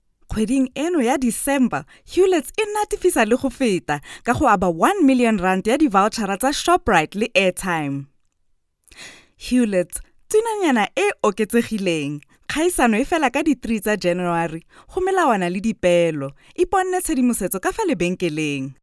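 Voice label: Tswana, General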